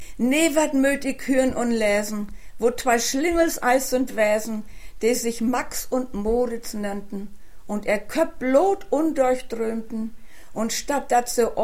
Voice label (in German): Dialekte Schwerin